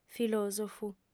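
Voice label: "filòsofu", Sardinian, female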